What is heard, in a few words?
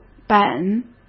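"本", Mandarin Chinese; chinese flashcards; hsk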